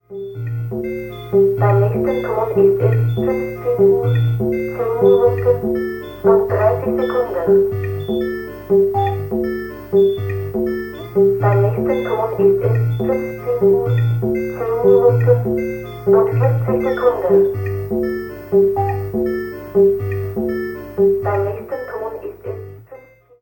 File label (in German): Telefon Zeitansage